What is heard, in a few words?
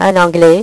"un anglais", French; HyperSound™2